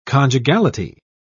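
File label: "conjugality", British English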